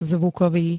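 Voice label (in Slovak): "zvukový", Slovak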